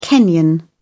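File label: "kenyan", British English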